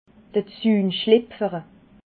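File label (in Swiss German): "zunschlipferle", Alsatian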